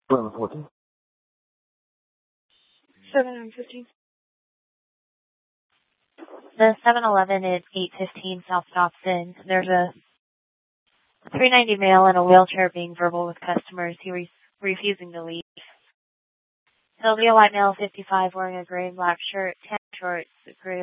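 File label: police dispatch, scanner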